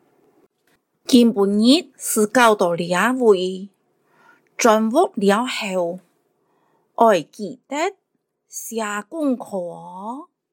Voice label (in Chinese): 客語 課室生活用語 海陸腔